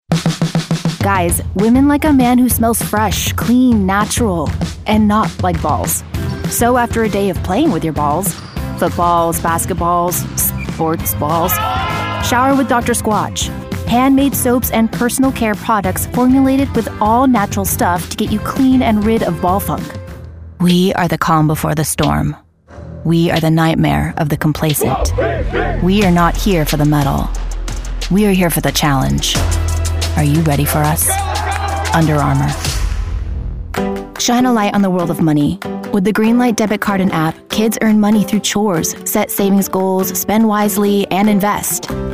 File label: Commercial